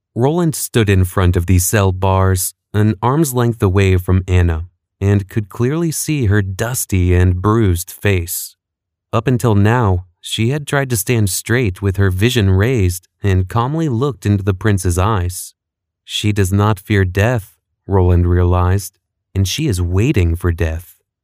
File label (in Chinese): 美式男声